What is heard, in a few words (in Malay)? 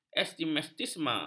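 "astigmatisme", Malay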